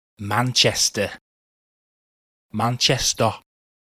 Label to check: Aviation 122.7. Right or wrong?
Wrong